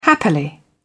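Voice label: "happily", British English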